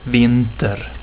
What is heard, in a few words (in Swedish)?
"vinter", Swedish; tijden